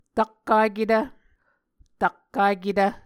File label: "takkaketv", Muscogee; Mvskoke Words with Repeated Consonants; Onvkv Ohhvyayices; A Dictionary of Creek/Muskogee; SIL International